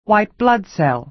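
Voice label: "white blood cell", American English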